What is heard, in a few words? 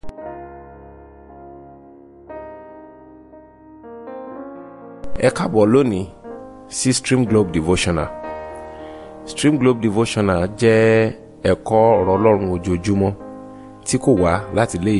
Devotional Audio Yoruba